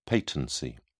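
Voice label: "patency", British English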